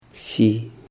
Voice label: "river", Koro

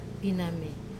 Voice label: "paname", very fine, Wamesa

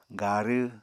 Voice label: "garw", Apatani

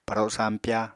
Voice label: "paro sampya", Apatani; Household Items

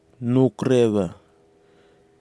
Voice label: "noukrewha", Nafe (Kwamera); plants; parasol leaf tree

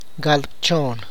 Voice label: "twenty three", tlacochahuaya

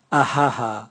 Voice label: aha aha, Raga

